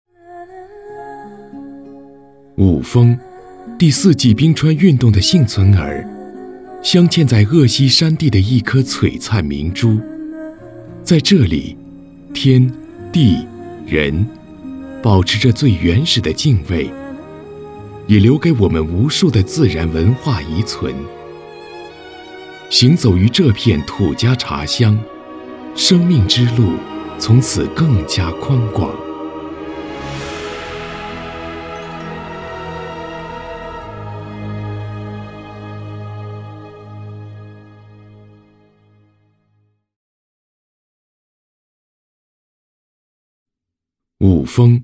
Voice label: 英男 参考音; 中国夏布小镇

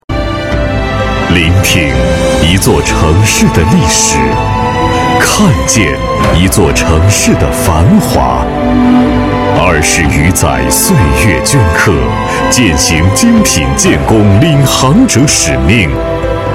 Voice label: 男 宣传片 城市厚重

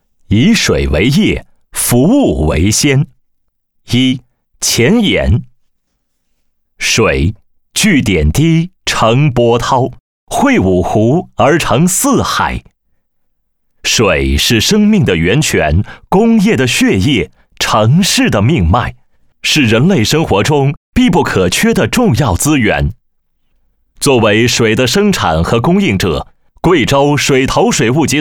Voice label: 专题片解说词配音; 端午封坛

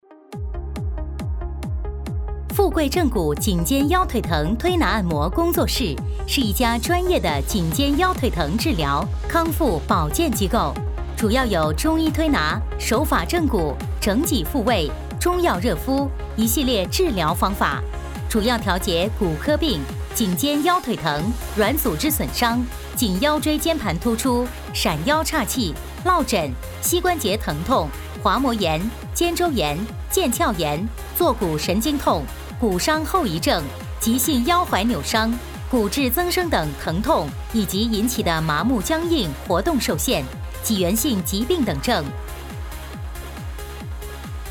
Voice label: 女 促销 正骨推拿

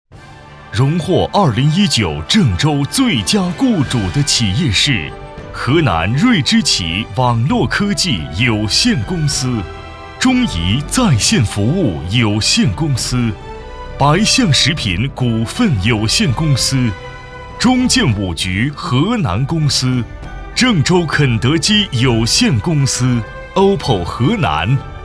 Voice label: 男 中文 颁奖; 郑州最佳雇主

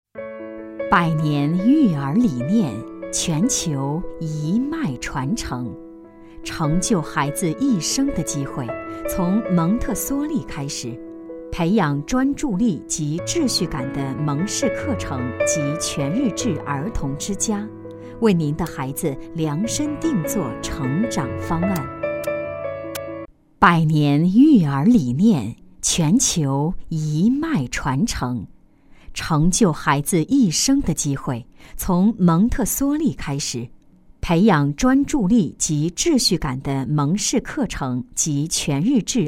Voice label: 女-宣传片-温和-学校机构育儿

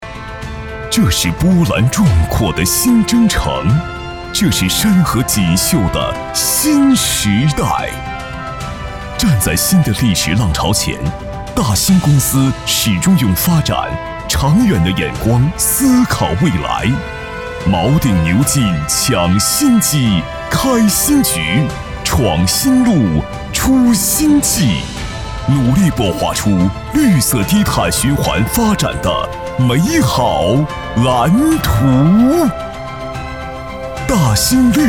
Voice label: 男,宣传片,浑厚大气

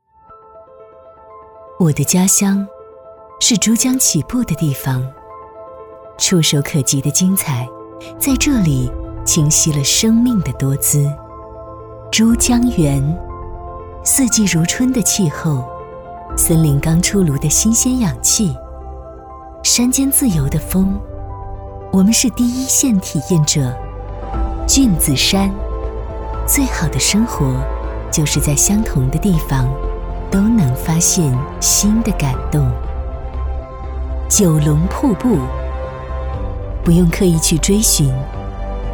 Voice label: 女 旁白; 家乡曲靖

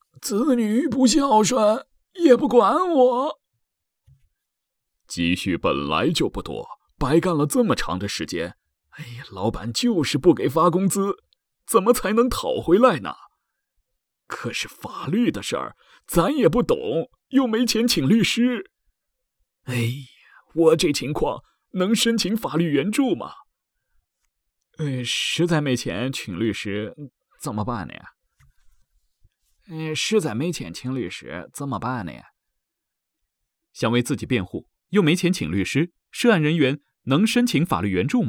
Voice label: 男-角色-老人音-中年音-年轻音-多角色-旁白

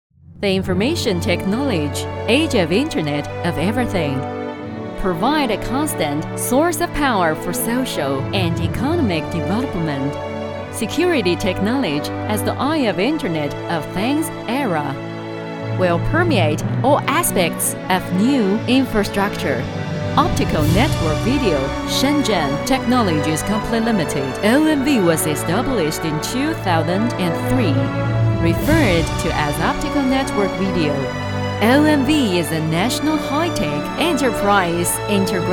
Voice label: 女 英语 公司宣传

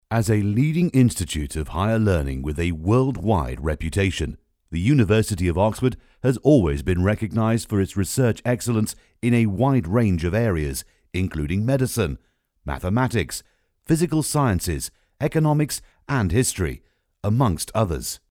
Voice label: 英文解说词; 小宝天下; 大时代; 牛津

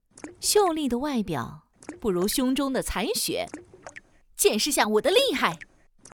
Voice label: 女 游戏角色; 黄月英

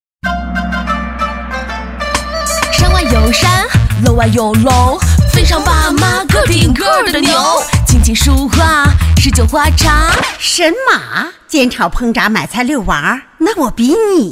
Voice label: 女 时尚rap 片头; 非常爸妈

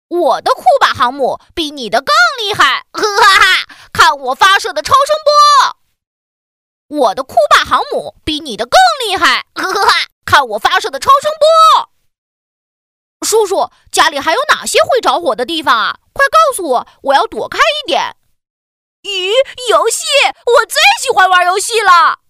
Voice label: 童声 男童声

